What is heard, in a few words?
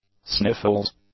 "sniffles", english; nouns